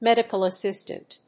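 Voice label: "medical assistant", English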